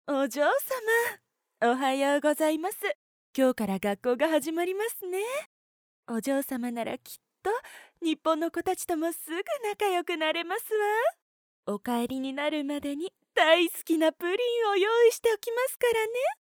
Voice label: 帰国子女のメイドさん

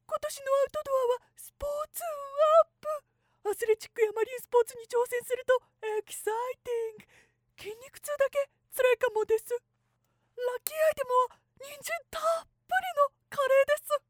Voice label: outdoor

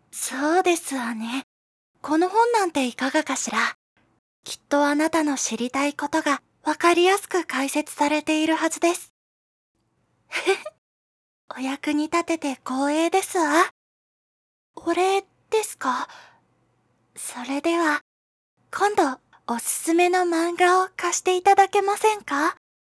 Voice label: 読書が好きなお嬢様

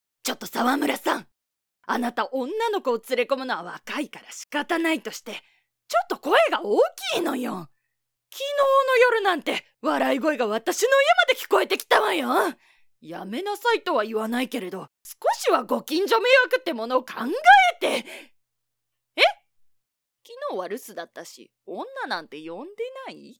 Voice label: ボイスサンプル女性 ご近所のおせっかいおばさん

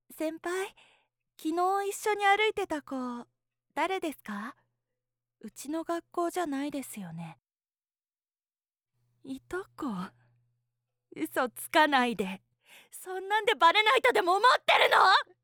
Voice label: 愛重めストーカー女子

